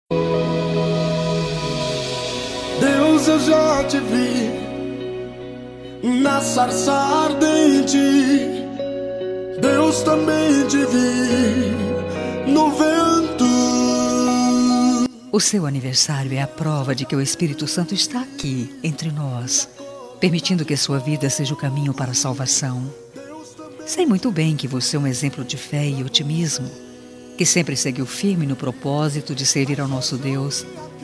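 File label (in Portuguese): aniversario evangelico feminino, telemensagem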